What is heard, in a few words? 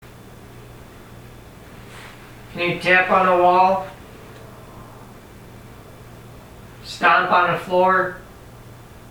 EVP Waalll; Waupun